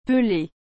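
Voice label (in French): "pelee", French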